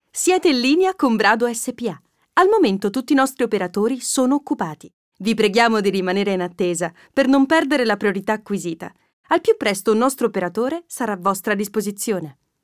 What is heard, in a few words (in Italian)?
Segreteria IVR, voice-over